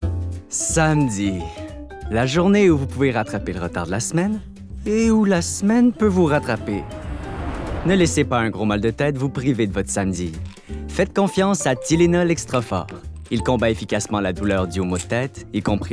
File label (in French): Publicite; Tylenol Extra Fort